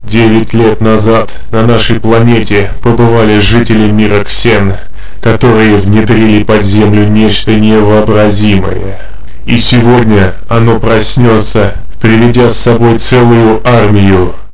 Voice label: announcer